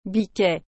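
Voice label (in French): "biquet", French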